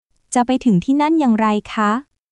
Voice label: Thai, basic thai phrases

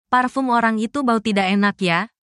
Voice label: indonesia, indonesian negative phrases

